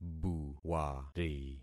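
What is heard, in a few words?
"buwari", concatenated syllables; Affixing experiment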